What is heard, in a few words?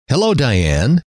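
"hello", male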